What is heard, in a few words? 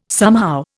"somehow", Indian